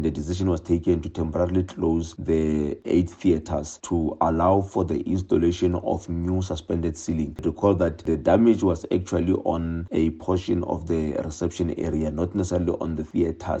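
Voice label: English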